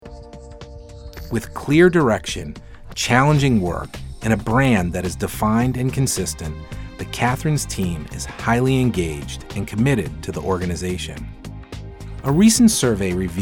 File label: Narration Business